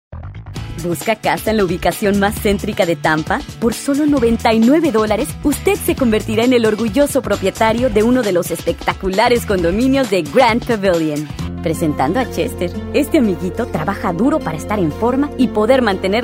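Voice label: latam mexican female commercial; voice demos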